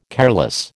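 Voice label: "careless", American English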